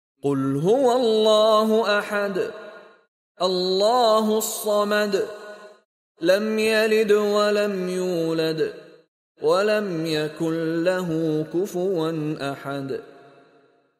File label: ARABIC Recitation; Surah 112 Al Ikhlas